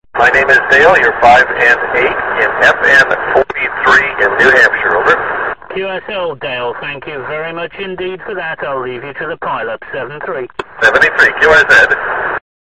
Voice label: qso